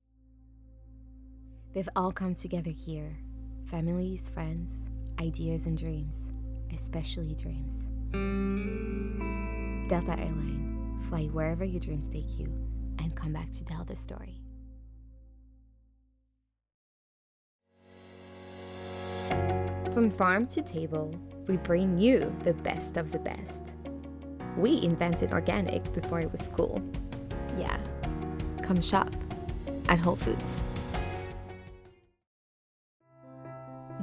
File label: US VO